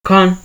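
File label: "con", Nigerian Pidgin, male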